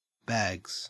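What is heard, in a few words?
"bags" Australian English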